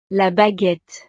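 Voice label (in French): "la baguette", French; desayuno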